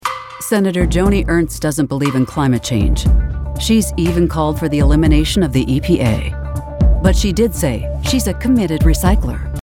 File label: Negative Political Ad